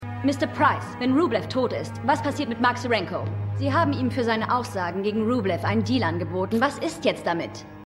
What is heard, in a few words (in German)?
Reporterin, Stimmproben; LAW & ORDER